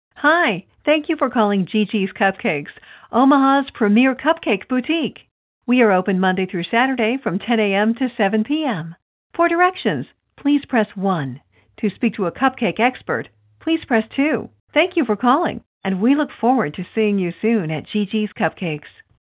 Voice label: Business Hours Greeting; Gi Gis Cupcakes